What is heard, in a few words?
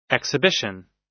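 "exhibition", US English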